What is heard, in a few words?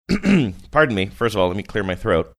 clearing his throat saying pardon me first of all